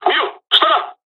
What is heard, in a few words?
dialog, dialogs japanese; War Thunder